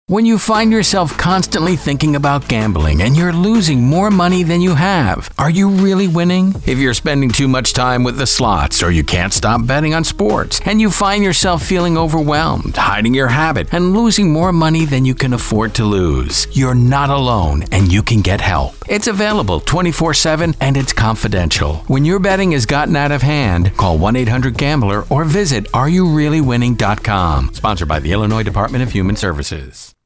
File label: male